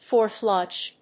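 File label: "four flush", English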